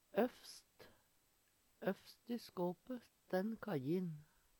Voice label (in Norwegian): "øvst", Numedalsmål; øverst; Øvst i skøpe stenn kajjin.; Preposisjon